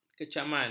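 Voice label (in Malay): "kecaman", Malay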